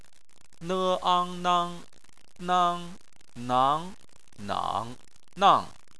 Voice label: "nang", chinese

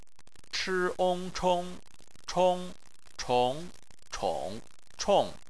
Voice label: "chong", Chinese

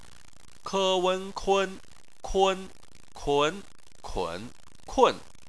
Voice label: "kun", chinese

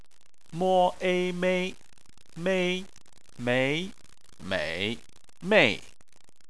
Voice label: "mei", chinese

